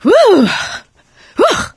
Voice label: adrenaline, survivor voice; Left 4 Dead 2; producer

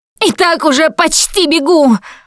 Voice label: going to die, russian; Producer

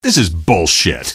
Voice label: question, male; Garry's Mod; hl2

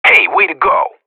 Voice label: radio bot nice shot, professional; player vo

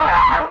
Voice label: feral hurt vox; Beyond the Sword; Units

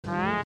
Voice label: huh idk, taunt; nl

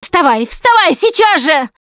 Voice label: revive friend loud, Russian; Producer

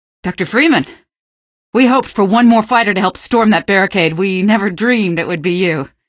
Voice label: firepit greet, female; Garry's Mod; hl2; Streetwar

